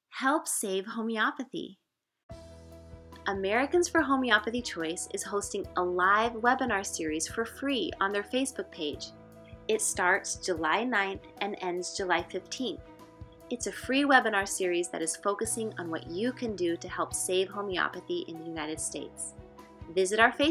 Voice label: Audio Commercial; Help Save Homeopathy